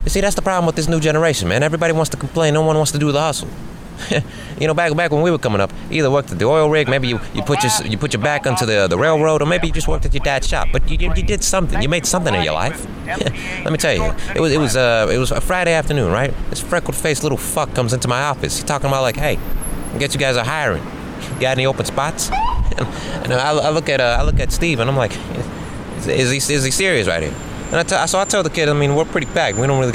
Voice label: Upset New Yorker